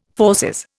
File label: "fauces", Indian